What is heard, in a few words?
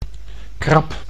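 "krab", Dutch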